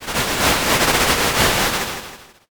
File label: whisper